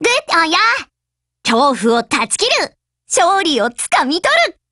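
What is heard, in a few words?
WIN Japanese, Voice; GF Alarm; F1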